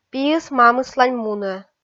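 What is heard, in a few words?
"пиыс мамыслань мунӧ", Komi, female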